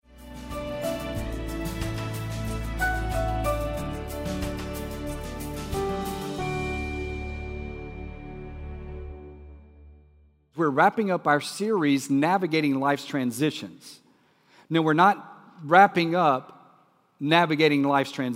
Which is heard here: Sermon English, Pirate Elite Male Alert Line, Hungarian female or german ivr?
Sermon English